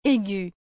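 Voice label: "aigue", French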